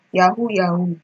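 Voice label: "yahoo yahoo", Nigerian Pidgin, female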